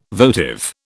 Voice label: "votive", British